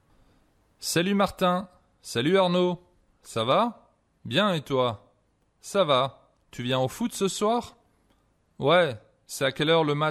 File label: Conversation, French